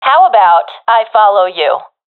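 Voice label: following friend, professional female; vo; Counter Strike Model Pack; CS:GO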